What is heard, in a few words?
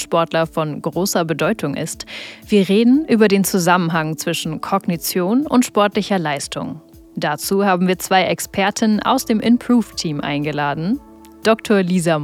Podcast; Inprove Inside